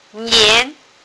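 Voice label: "nian2", Mandarin Chinese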